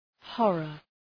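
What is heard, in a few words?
"horror", English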